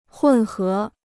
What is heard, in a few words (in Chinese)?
"混合", Chinese